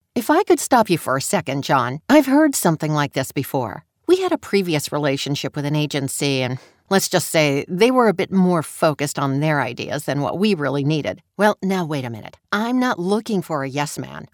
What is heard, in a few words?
Conversation